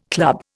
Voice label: "club", Indian